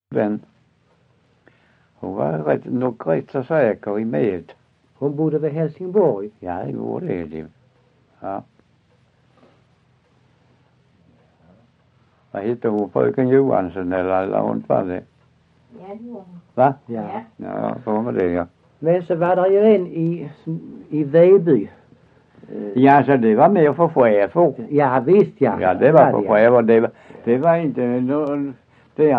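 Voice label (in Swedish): Össjö Skåne, dialekter; Folkminnen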